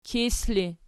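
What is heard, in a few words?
"kis" ergative, Archi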